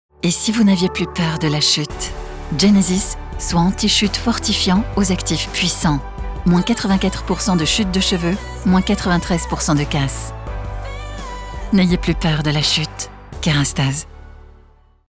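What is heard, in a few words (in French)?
pub voix off, voix off femme; kerastase genesis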